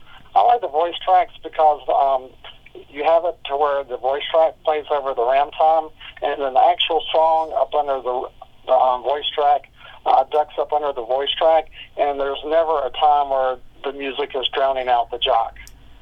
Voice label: voicetrack ducking